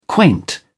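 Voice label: "quaint", English, British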